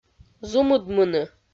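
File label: "зумыдмыны", Komi-Zyrian, female; audio dict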